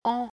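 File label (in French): "an", français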